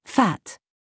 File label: FIFE VERVE, minimal pairs, br